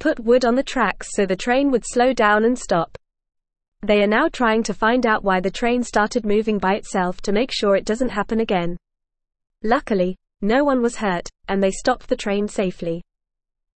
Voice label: English Beginner FAST Reading; English Newsroom; Runaway Train in India Goes on Long Trip

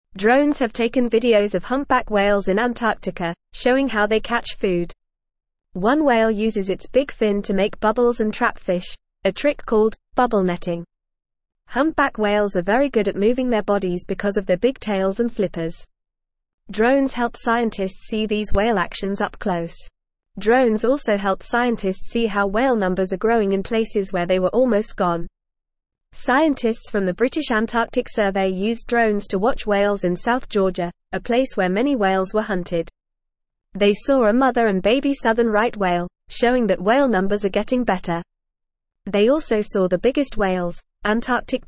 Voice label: English FAST Reading; Drones help scientists study whales in Antarctica

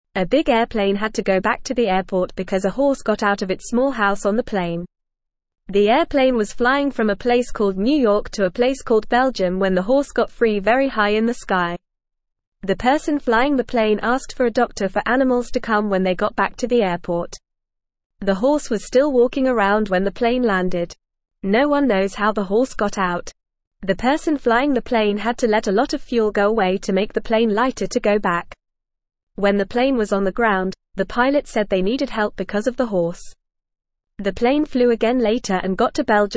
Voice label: English Newsroom Beginner FAST Reading; Horse Escapes Plane Causes Trouble Needs Help

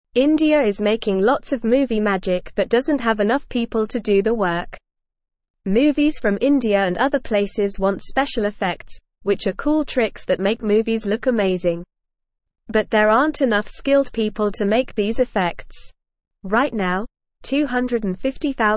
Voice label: English NORMAL Reading; India Needs More People to Make Movie Magic

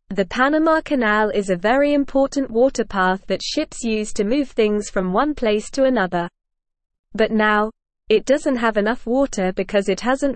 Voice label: English Beginner NORMAL Reading; English Newsroom; Panama Canal Needs More Water for Ships

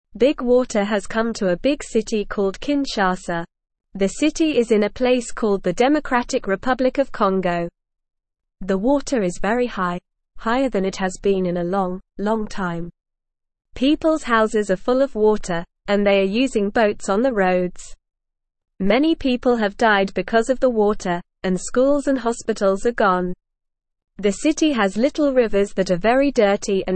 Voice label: Beginner NORMAL Reading, English; English Newsroom

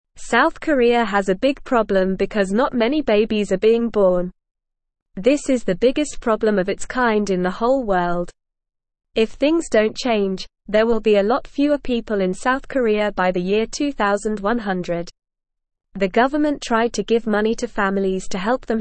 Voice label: English Beginner NORMAL Reading; English Newsroom; South Koreas Big Problem Not Enough Babies

